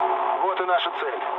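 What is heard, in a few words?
near waypoint, mood low, Russian; War Thunder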